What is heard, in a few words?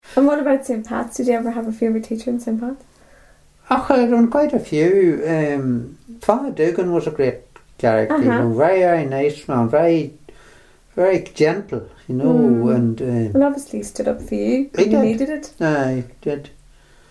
Irish English, North of Ireland, interview, Benburb, Co. Tyrone